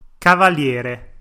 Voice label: "cavaliere", Italian